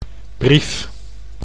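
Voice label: "brief", Dutch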